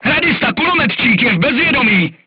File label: voice message machine gunner contused, crew tanks czech